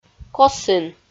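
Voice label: "косін", Komi, female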